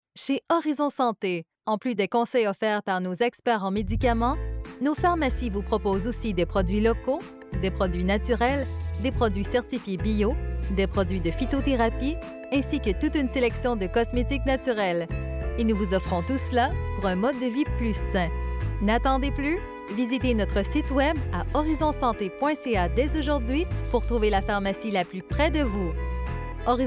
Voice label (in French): Campagne Femme; Horizon Sante